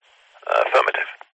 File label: vehicles airplane pilot radio chatter comms control tower says affirmative